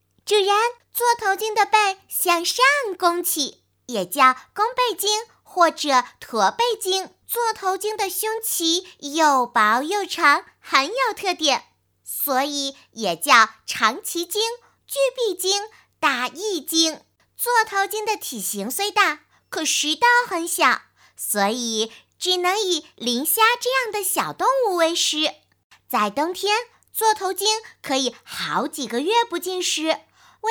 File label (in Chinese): 女 女童科教类